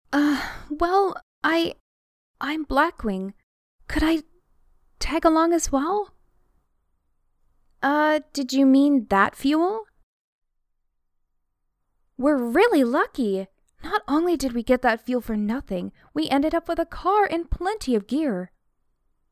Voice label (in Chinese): 外籍美式女声角色黑魔法师